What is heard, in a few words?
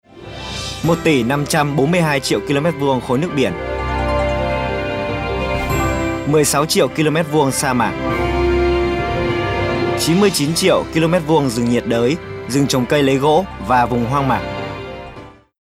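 Vietnamese commercial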